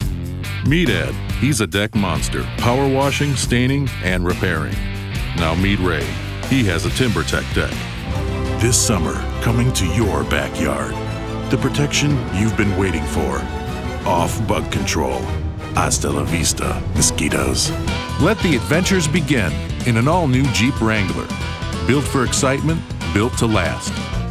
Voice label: commercial